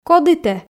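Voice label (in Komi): кодi тэ, Komi, female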